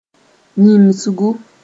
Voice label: "yĩmsgu", Mooré